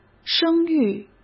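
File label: "声誉", Mandarin Chinese; hsk; chinese-flashcards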